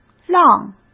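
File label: "浪", Mandarin Chinese; hsk; chinese flashcards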